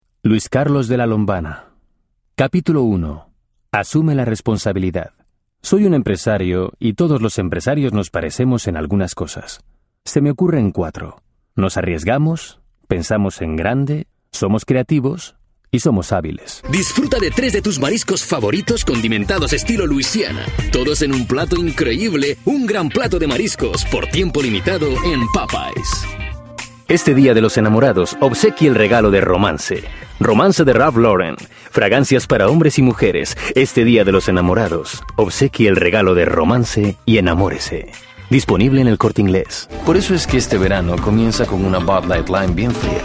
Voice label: Spanish